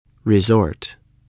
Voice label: "resort", English